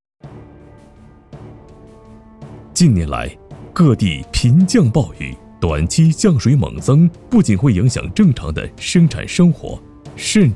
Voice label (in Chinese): 宣传片大型水陆两栖转载车视频解说